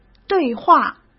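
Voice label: "对话", Mandarin Chinese; hsk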